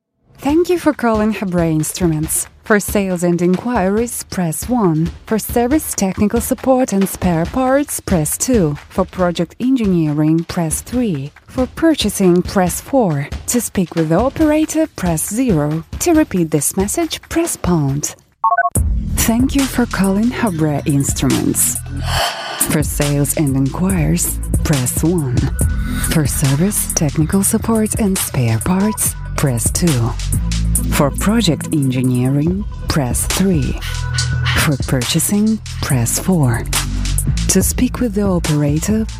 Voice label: russian female english ivr